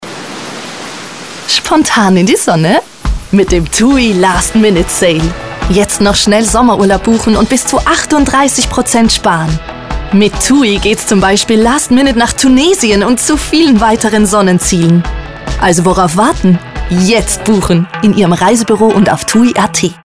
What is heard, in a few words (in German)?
Tunesien, Hörfunkspot; GESPROCHEN; Tui Reisen; Hörfunkspot "Tui Reisen" (Tunesien), 2024; LastMinute